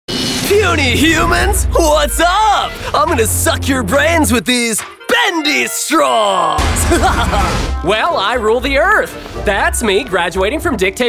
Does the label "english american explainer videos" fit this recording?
No